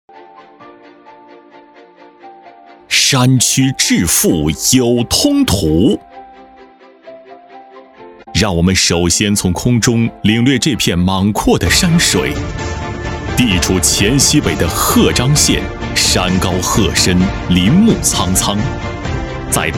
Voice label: 男 专题片 大气 脱贫致富有通途

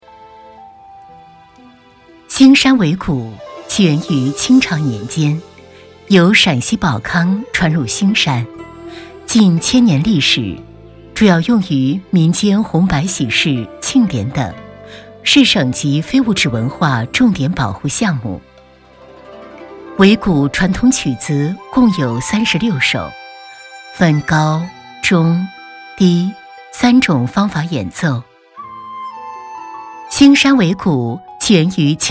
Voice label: 女 古典讲述; 兴山围鼓

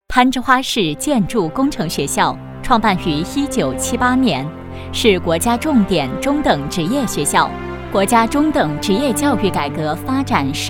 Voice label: 女 专题; 攀枝花市建筑工程学校

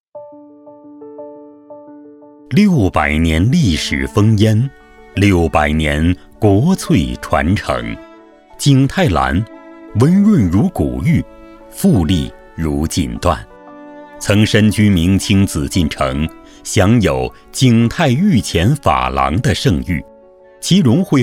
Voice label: 男 专题 景泰蓝 工艺品介绍类; 景泰蓝-工艺品介绍类样音

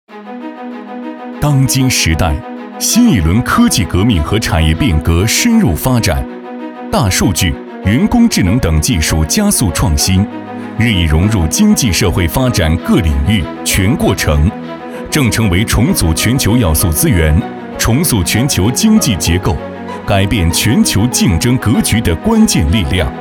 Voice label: 男 科技感; 当今时代

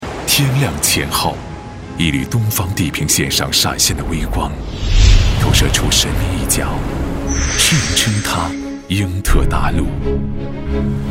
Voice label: 男 专题片 企业 线性故事

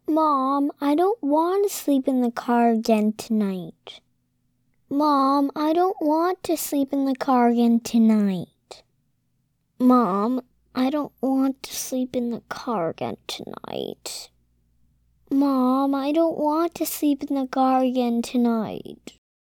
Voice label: 外籍女童声 委屈撒娇

